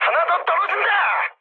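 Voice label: Korean player killed enemy mood high, speech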